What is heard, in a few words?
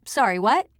Announcer